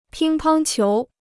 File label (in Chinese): "乒乓球", Chinese